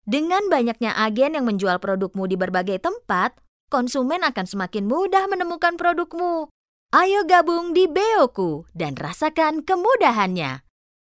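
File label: Indonesian, female, commercial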